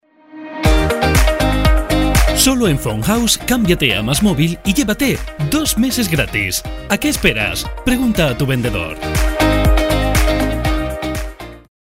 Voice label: ESP; PHONE HOUSE; MASMOVIL